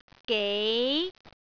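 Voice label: "gei2", Cantonese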